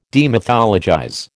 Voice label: "demythologize", American